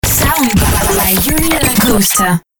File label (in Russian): DJ ДЖИНГЛ